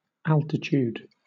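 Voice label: "altitude", British English; pronunciations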